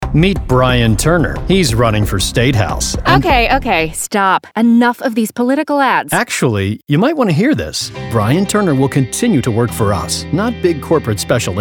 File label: Radio